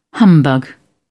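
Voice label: "humbug", English, British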